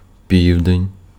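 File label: Ukrainian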